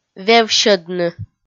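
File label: "вевсьӧдны", Komi, female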